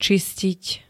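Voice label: "čistiť", Slovak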